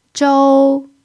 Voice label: "zhou1", Chinese pinyin

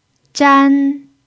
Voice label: "zhan1", Chinese pinyin

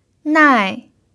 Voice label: "nai4", chinese pinyin

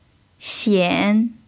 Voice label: "xian3", Chinese pinyin

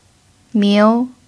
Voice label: "miu2", chinese pinyin

